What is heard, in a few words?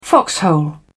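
"foxhole", English, British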